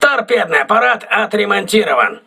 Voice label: voice message tt repaired, russian

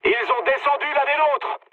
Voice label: aircraft destroyed mood med, speech fr

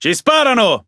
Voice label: Speech Pilots Fighter Under Attack, IT; World Of Warships

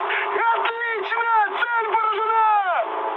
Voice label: bomb success, mood high, speech ru; War Thunder

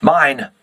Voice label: voice message mine, crew ships english

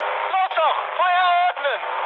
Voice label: attack position, mood high, speech ge

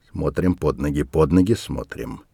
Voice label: mutter, voice; Escape from Tarkov

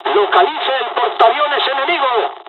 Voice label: jpn bombing, dialogs spanish; midway; War Thunder

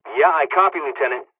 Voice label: dialogs serbian; War Thunder

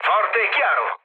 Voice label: chat, speech it; War Thunder

